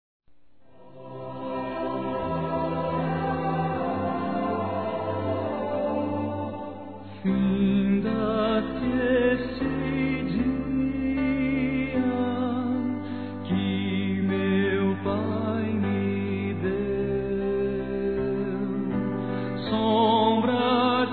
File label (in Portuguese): ANO NOVO RELIGIOSO M, masc; Telemensagens; Natal e Ano novo